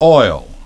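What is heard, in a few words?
"oil", English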